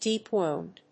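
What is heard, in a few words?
"Deep wound", English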